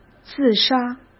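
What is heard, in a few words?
"自杀", Mandarin Chinese; hsk; chinese-flashcards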